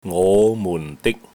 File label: "ngo5mun4dik1", Cantonese